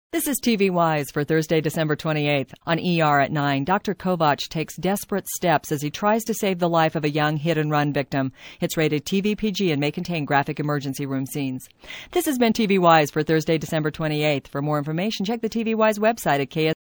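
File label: promo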